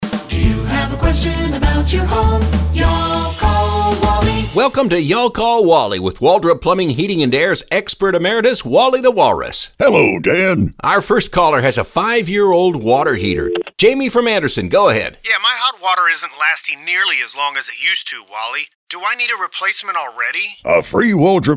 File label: radio ads; Anode Inspection